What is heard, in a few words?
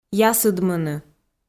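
"ясыдмыны", Komi-Zyrian, female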